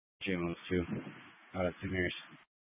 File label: Grand Junction Fire Department Dispatch